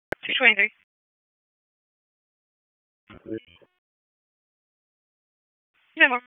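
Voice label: Police Northeast District Dispatch, p25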